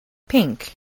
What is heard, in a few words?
"pink", voice, English